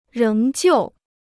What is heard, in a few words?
"仍旧", Chinese